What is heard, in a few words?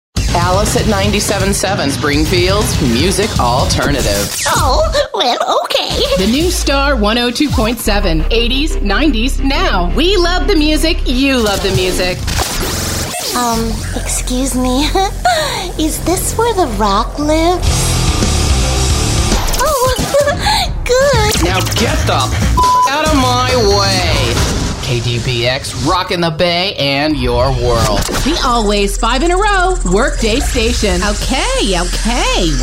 Imaging